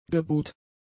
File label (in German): "gebuht", German; adjectives